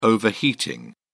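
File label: "overheating", British English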